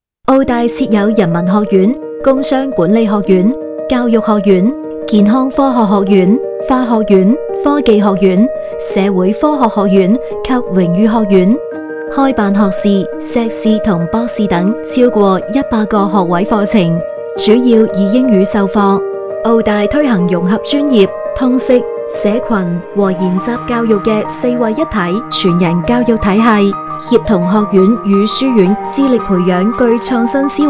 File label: cantonese 學院